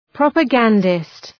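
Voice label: "propagandist", English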